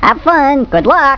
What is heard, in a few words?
Narrator